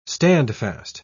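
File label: "standfast", British English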